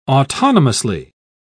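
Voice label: "autonomously", English, British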